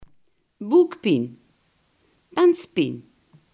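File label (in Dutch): "buikpijn" Limburgish dialect word; buikpijn, boekpien, penspien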